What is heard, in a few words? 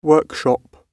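"workshop" spoken, British English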